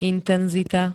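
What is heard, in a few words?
"intenzita", Slovak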